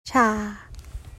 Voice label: in Thai